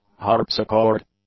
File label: "harpsichord", english